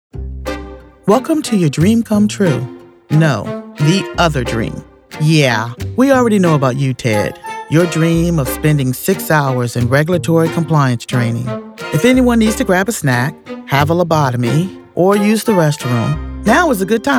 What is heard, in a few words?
E-Learning